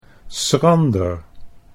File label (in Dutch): "schrander", Dutch